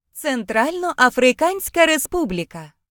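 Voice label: "central african republic", Ukrainian; gcompris; geography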